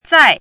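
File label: "zai4", Mandarin pinyin syllable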